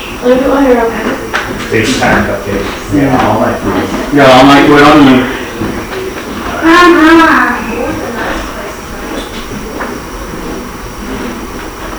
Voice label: Living Room Unexplained Childs Voice (I'm, Hi) AMPLIFIED